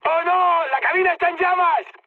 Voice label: on fire, mood high, speech; War Thunder

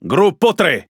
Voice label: It Speech Pilots Torpedo ID; World Of Warships

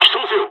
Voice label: dialogs japanese; War Thunder

